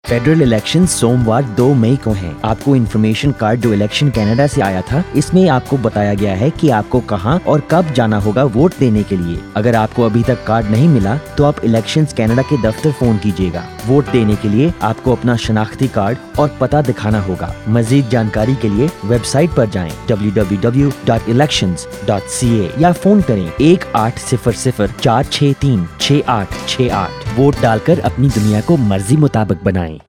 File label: urdu